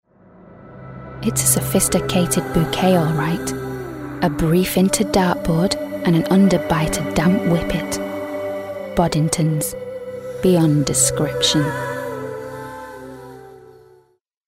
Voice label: commercial; boddingtons